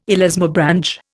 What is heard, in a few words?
"elasmobranch", Indian